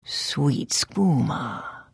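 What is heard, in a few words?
Idle, Khajiit female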